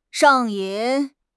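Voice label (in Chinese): "上瘾", Chinese; HSK6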